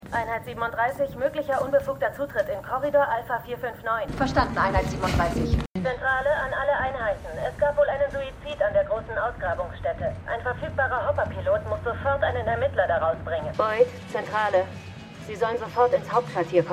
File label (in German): Frau Zentrale; For All Mankind